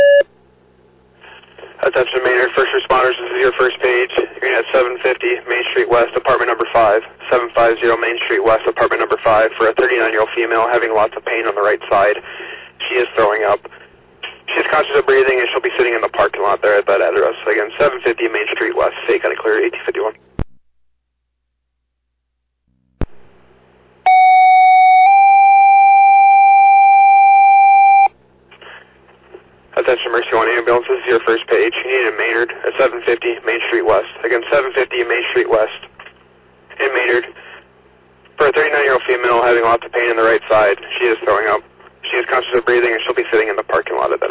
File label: Fire, dispatch; Maynard